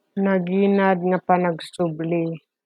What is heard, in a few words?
Ilocano